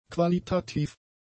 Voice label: "qualitativ", German; adjectives

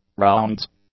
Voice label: "rounds", English; nouns

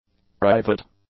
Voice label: "private", english; adjectives

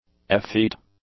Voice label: "effete", English; adjectives

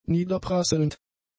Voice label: "niederprasselnd", German; adjectives

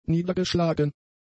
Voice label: "niedergeschlagen", German; adjectives

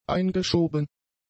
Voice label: "eingeschoben", German; adjectives

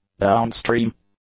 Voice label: "downstream", English; adjectives

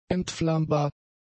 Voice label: "entflammbar", German; adjectives

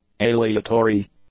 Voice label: "aleatory", English; adjectives

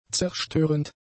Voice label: "zerstörend", German; adjectives

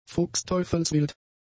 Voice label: "fuchsteufelswild", German; adjectives

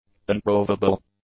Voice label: "unprovable", english; adjectives

